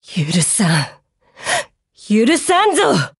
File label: DEAD JP; GF Alarm; Alchemist